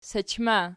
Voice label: "sachma", Archi